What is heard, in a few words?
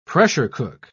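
"pressure-cook", British English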